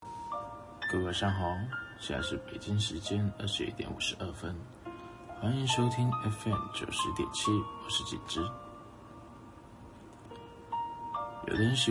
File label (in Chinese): 电台故事